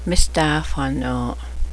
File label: "boogeyman bread", cheyenne